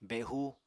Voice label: "behu", Apatani